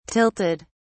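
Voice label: "tilted", British English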